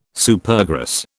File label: "Supergrass", British